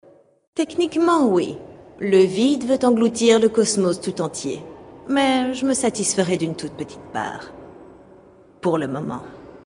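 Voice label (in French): Female Blague, voix françaises; Elfe du Vide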